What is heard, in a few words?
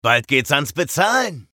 vo menu chatter